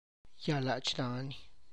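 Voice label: wild pepper tree whose leaves cause a skin rash, Tlacochahuaya Zapotec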